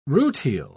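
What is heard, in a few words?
"rutile", British English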